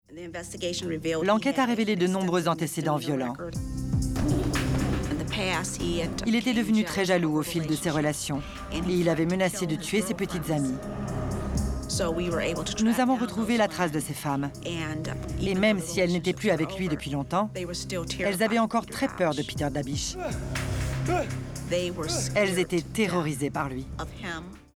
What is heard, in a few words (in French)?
voix off crimes; Peter Davish